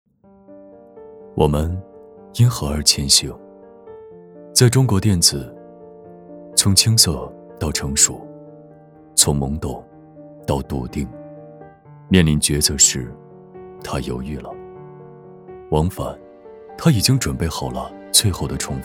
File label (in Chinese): 男 走心; 我们因何而前行